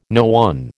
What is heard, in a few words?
"no one", American